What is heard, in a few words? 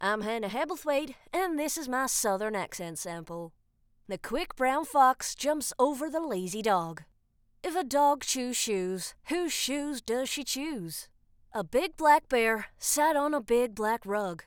US Southern American Accent